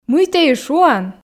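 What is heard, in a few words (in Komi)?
мый тэ и шуан, Komi, female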